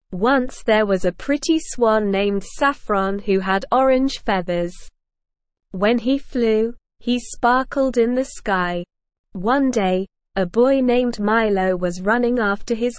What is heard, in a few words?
ESL Short Stories for Kids Beginner SLOW Reading; The Saffron Swan